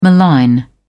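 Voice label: "malign", English, uk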